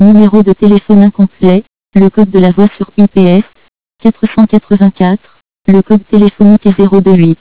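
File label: incomplete number, French; asterisk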